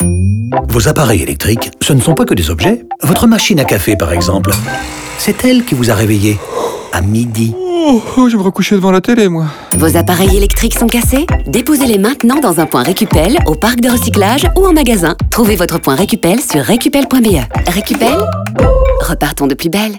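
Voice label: radio music intro, french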